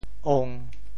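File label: "ong1", Teochew; 潮州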